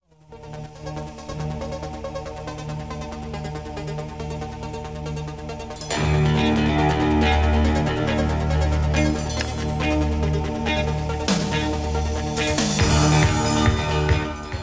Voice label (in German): ansage, radio; swf3